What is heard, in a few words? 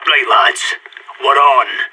pep talk, radio; SAS